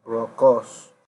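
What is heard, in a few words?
"brokos", Nigerian Pidgin, male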